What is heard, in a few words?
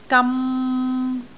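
"gam3", Cantonese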